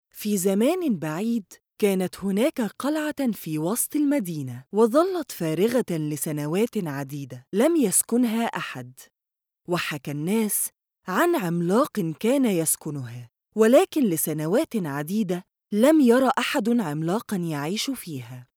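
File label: arabic female narration, voice demos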